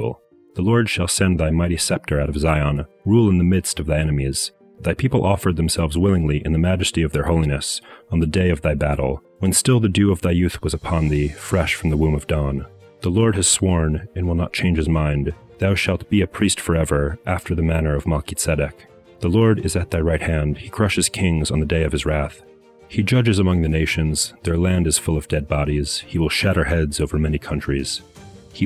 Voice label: poetry and prayer psalm english